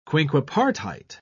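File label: "quinquepartite", English, uk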